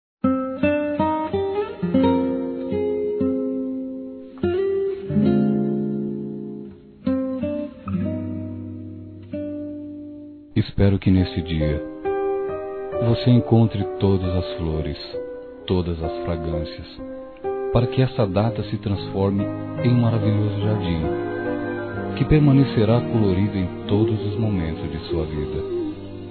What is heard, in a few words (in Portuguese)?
Aniversário Feliz Aniversário Masculino; Aniversários; Aniversários diversos